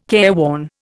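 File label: "careworn", Indian English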